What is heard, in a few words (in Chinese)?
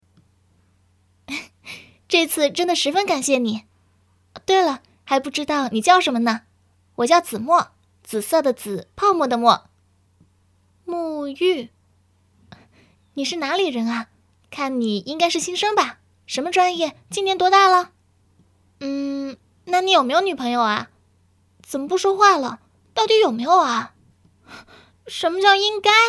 女角色; 绝命高手; 彼岸